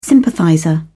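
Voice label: "sympathizer", English, British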